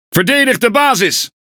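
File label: NL Speech Quick Commands Ally Base, Dutch; World Of Warships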